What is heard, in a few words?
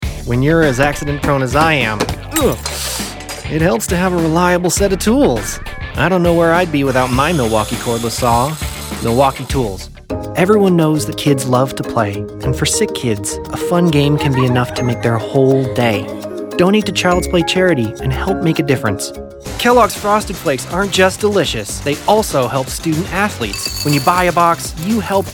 Professional Male, Creature Voiceover